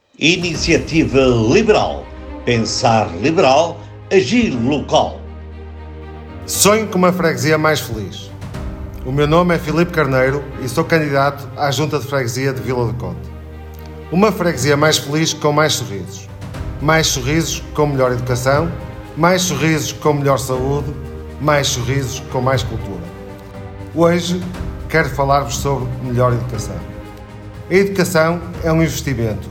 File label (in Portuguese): spot radio; melhor educacao